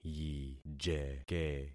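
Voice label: "yijeke", concatenated syllables; Affixing experiment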